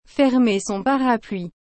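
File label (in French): "fermer son parapluie", French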